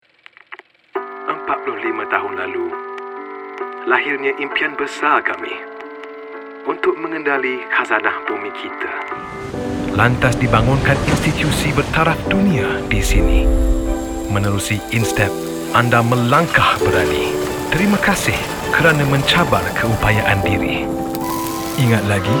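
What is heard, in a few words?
Malay; PETRONAS; 45 Years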